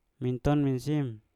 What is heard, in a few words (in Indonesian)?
"minton mensim" anak perempuan, Mpur